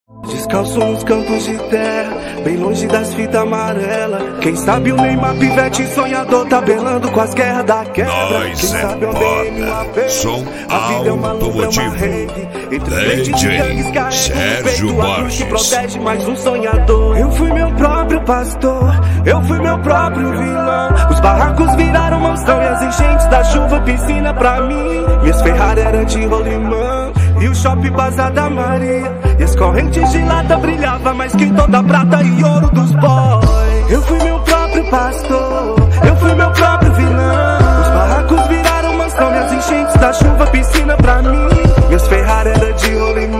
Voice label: rap; som automotivo